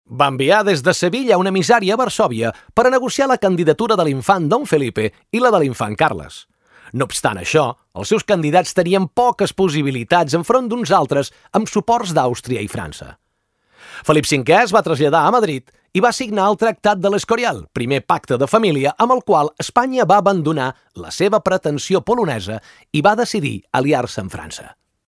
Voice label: locutor catalan voiceover

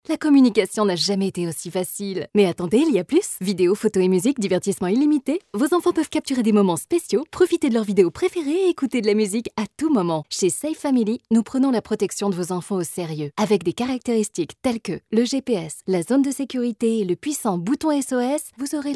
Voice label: locutora francesa french voiceover

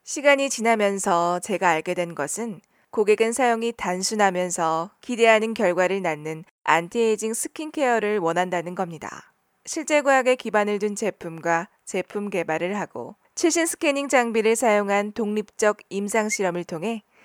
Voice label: korean voiceover locutora coreana

